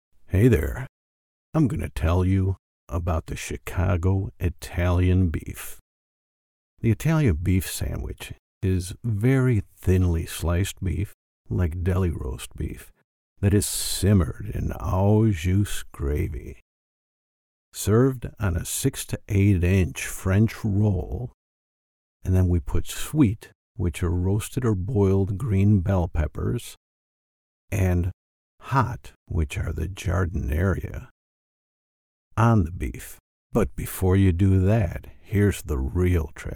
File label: male american voice over sales, english american